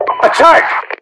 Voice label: attack, localized english, UK voiceovers; mpvoice